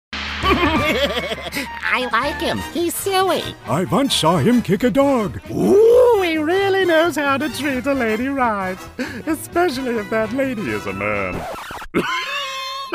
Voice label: Animation